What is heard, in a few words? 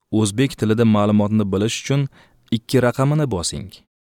Uzbek voice over